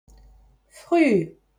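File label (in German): "früh", German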